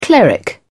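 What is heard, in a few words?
"cleric", British English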